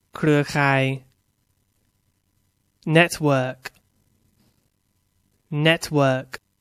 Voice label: "network", English; Noun